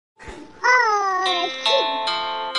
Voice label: "Oh Shit" Alert Notification

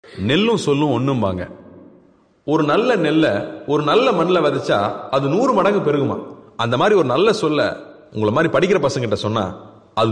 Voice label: Tamil Bad Words Dialogue South Indian, ringtone

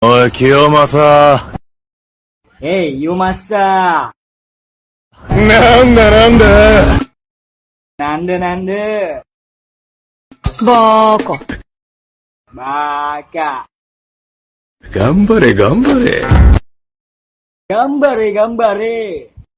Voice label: Korean Dialogue

